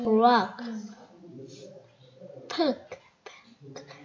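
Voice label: "pick", spoken word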